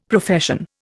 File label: "Profession", Indian; Dictionary